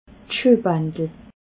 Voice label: "schuehbandel", alsace

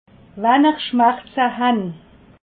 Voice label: "schmerze", alsace

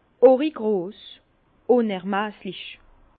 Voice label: "unermesslich", Bas Rhin; immense; vin

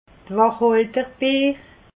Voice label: "wachholderbeer", alsace

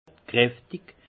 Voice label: "kraftig", alsace; vin; corsé

